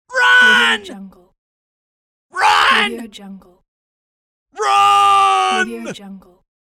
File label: cartoonish male yelling run